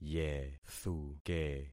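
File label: "yethuke", concatenated syllables; Affixing experiment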